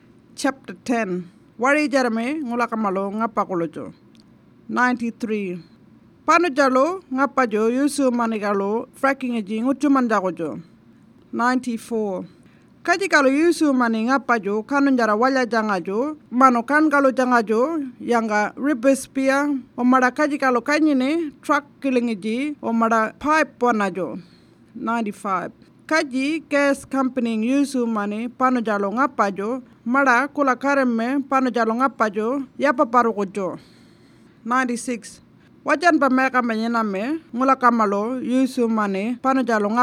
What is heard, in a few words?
Warlpiri; Fracking